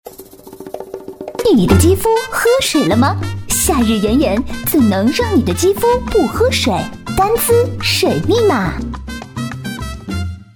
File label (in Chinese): 广告 化妆品 年轻; 丹姿水密码